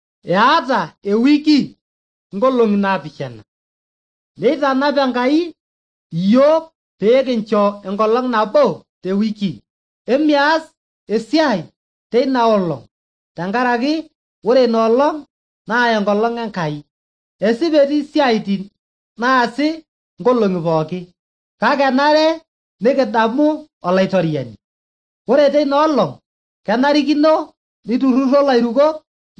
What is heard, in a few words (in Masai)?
maasai bsv nt drama; good news; bible